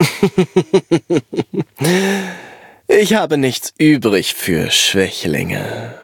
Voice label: loading, de